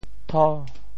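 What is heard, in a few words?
"tho5", Teochew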